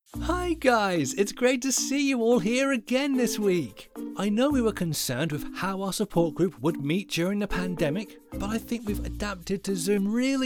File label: Voice Over Animation